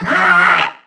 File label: Struggle Crewmen; Warframe; CorpusCrewmen; CrewmanD